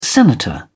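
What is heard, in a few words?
"senator", English, British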